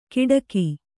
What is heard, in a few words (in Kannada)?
"ಕಿಟಕಿ", kannada